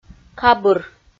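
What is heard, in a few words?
"кабыр", Komi, female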